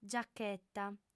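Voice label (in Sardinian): "giacheta", Sardinian, female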